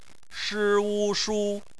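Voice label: "shu" bopomofo, Chinese; phonetics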